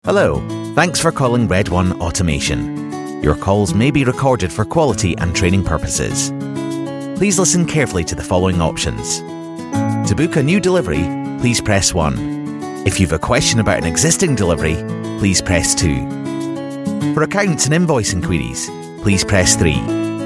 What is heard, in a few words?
Scottish voice telephone